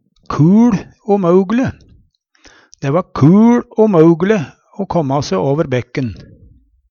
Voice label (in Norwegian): kuL umøug(e)le, Numedalsmål; umogeleg, uråd